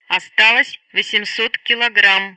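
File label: Speech Sound Russian Common Messages; Betty; Fuel800; DCS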